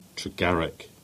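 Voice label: "Roche", Cornish; place-names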